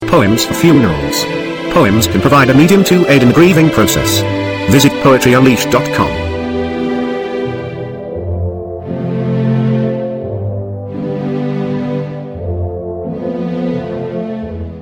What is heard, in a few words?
baby poem